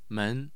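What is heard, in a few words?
"men", Mandarin Chinese, tone 2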